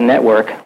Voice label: LoFi Words, LoFi Vocals

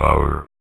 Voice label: Vocoder Words; Vengeance Samples

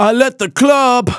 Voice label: phrase male rap; Vengeance Samples

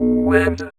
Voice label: Vocoder Words; Vengeance Samples

